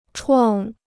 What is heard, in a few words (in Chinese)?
"创", Chinese